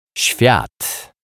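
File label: "swiat", Polish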